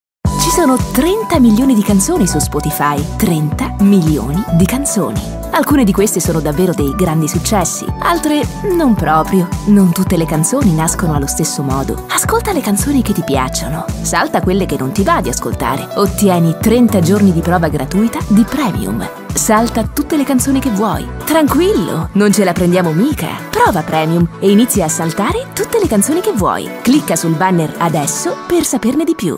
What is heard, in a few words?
italian commercial, voiceover